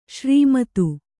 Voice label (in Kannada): "ಸರಮುತ್ತು", Kannada